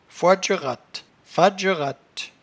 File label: "foidgeratte", patois jura